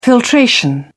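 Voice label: "filtration", British English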